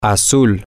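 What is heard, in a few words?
blue, Spanish, male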